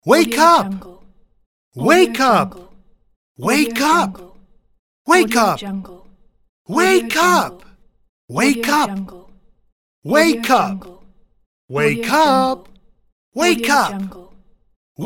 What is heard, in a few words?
man voice wake up